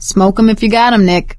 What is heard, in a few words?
suggest health, survivor voice; Left 4 Dead 2; producer